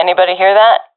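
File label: heard sound, professional female; vo; agents; Source Model Packs; Counter Strike Model Pack; CS2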